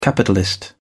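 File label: "capitalist", English, British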